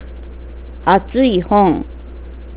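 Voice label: "atsui" (hot), nagoyaben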